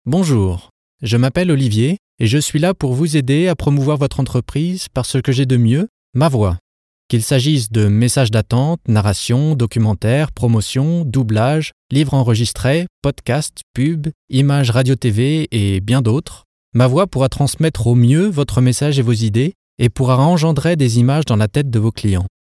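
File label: French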